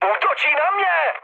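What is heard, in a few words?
aircraft damaged, Czech, mood med; War Thunder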